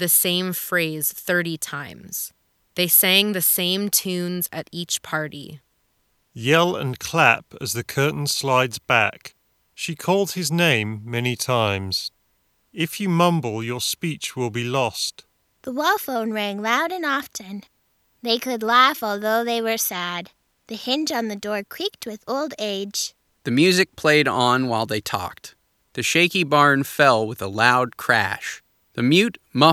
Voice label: SteelSeries Arctis Nova 3 USB Ideal microphone sample